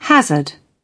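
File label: "have", British English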